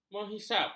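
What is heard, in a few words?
"menghisab", Malay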